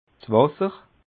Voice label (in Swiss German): "wasser", alsace